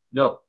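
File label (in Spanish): Voice Acting, Spanish, male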